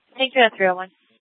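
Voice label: Police Department Dispatch, scanner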